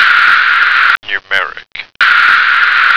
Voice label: "numeric", American